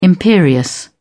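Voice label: "imperious", British English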